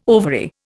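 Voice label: "ovary", Indian English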